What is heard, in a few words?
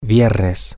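Friday, Spanish, male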